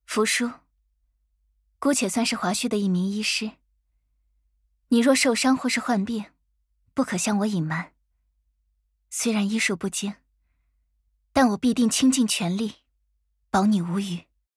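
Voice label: voice chinese; Fushu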